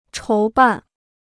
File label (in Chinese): "筹办", Chinese